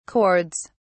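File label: "chords", British English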